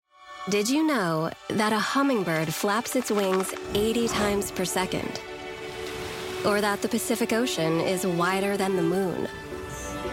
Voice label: Corporate Narration Image Film